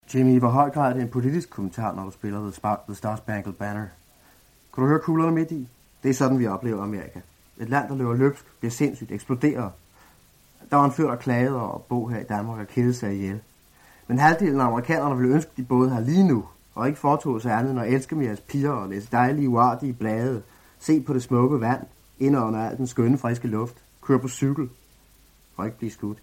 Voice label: Danish Radio Broadcast; 1969-01-10 - Rabalder I Teateret, Copenhagen

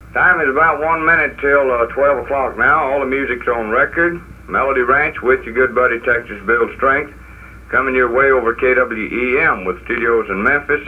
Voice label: Advertisement; Overton Park Shell Country Music Jamboree

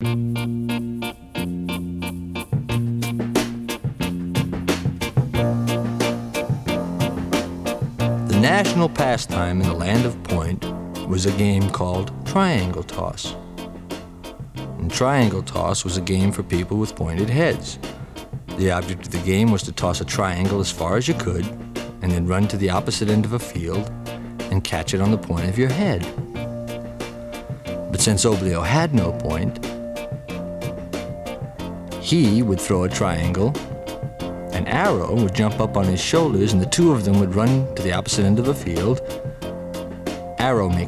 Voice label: Narration

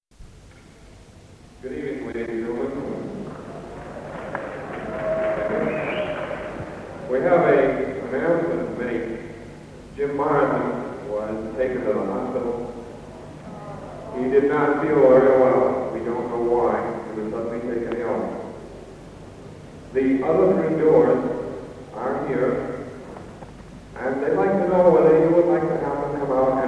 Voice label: House announcer; Concertgebouw, Amsterdam, Holland; 1968-09-15

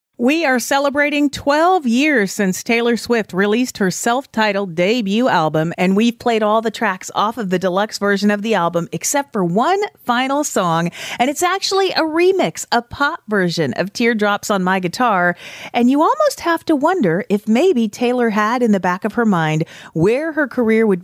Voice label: Commentary

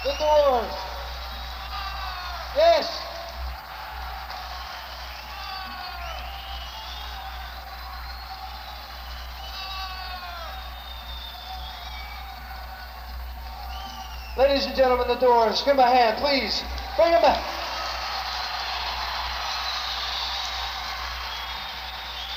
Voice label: House announcer; PNE, Vancouver, Canada

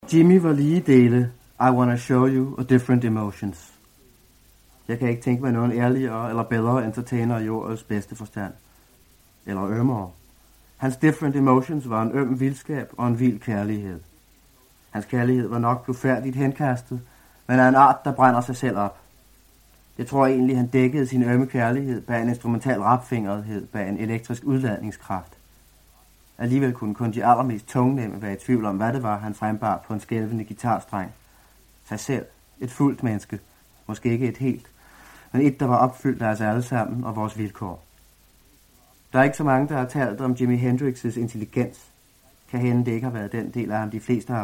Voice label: Danish Radio Broadcast; 1969-01-10 - Rabalder I Teateret, Copenhagen

